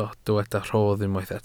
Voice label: "rhodd", welsh